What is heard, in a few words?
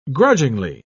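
"grudgingly", British English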